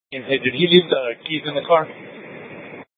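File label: police dispatch, scanner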